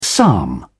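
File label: "psalm", British English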